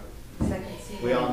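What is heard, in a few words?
weird voice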